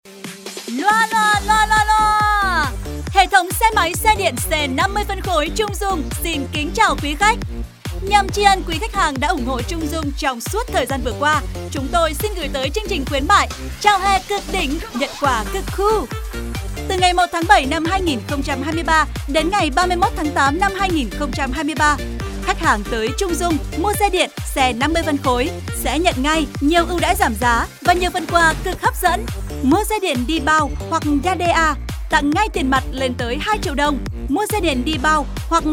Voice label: CUA HANG XE DIEN, GIONG NU MIEN BAC; CHUNG DUNG

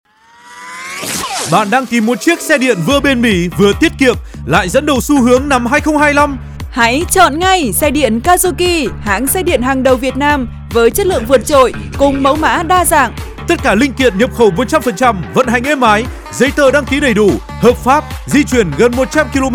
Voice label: Thu am loa phat thanh xe dien; Kazuki

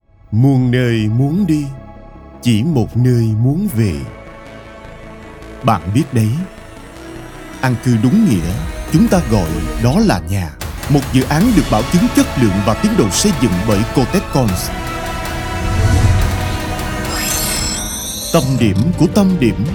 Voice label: GIONG NAM MIEN NAM; DU AN BDS THE EMERALD 68

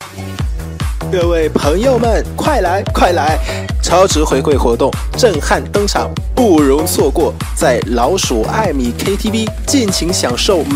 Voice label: Voice Tieng Trung; Karaoke KTV

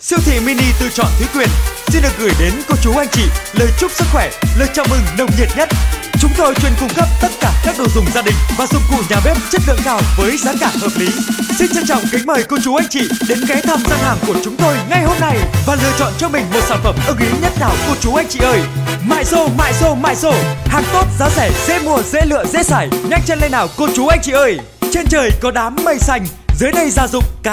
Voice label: Thu am phat loa giam gia Voice MC nam mien bac Radio Ad; Sieu thi gia dung Thuy Quyen

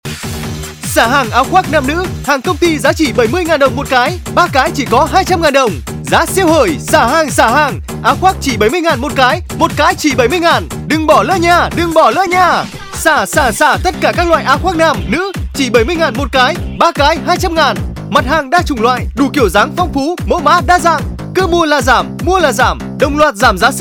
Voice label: Thu am quang cao Xa hang ao khoac

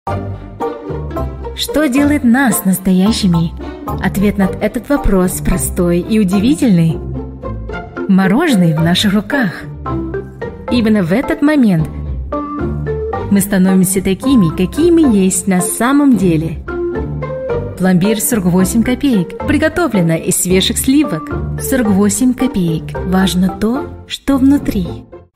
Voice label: Tieng Nga Quang cao Kem; Plombir; thu am da ngon ngu

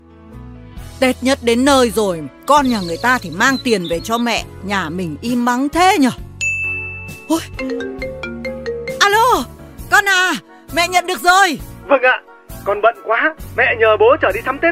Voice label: Giong dien thoai; Banh mut keo Ha Noi

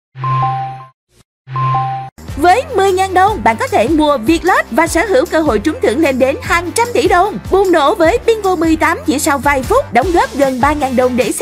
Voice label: Voice MC Nu Mien Nam Radio Ad; VIETLOTT

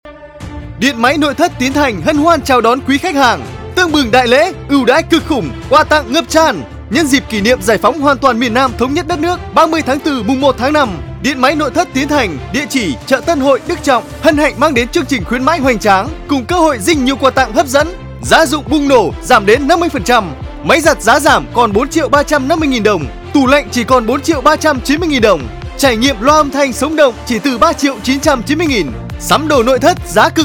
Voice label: thu am quang cao; Dien may noi that Tien Thanh

